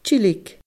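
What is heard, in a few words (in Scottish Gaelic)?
"tilg", Scottish Gaelic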